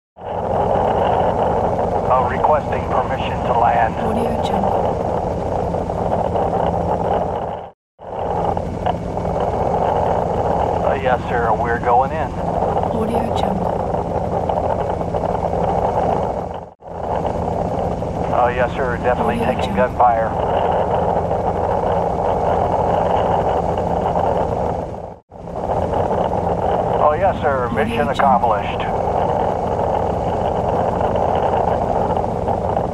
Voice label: military helicopter radio voices; applehillstudios